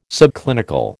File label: "subclinical", American